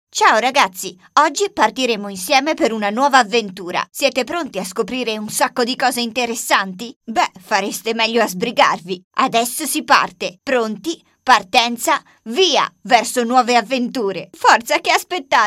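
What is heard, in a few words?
Italian, kid voice; GoLocalise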